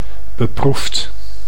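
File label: "beproefd", Dutch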